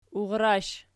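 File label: "urrsh" nominative, Archi; urrash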